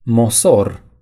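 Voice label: "spool", Romanian; gcompris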